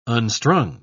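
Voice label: "unstrung", British English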